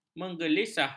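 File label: "menggelisah", Malay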